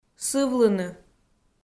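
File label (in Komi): "сывлыны", Komi, female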